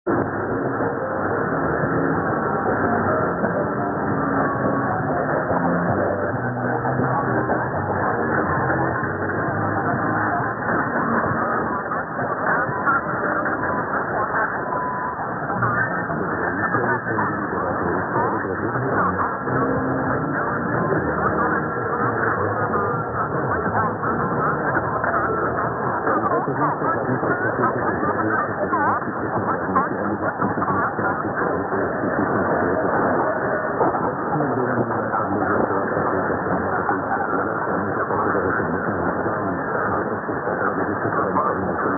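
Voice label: 954 likely Czech Radio Czech Republic; Czesky Rozhlas CZ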